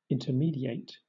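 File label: "intermediate", British English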